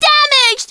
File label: "Damaged!" High Female Voice; Knights